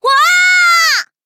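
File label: hit, Japanese; cookie run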